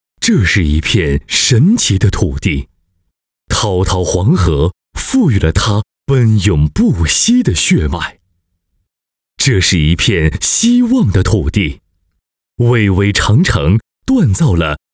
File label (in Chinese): 男, 项目建设汇报; 合芜铁路电气化改造站后四电施工纪实